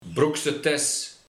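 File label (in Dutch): "broeksetes", dialect; Broekzak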